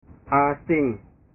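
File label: "asing", Indonesian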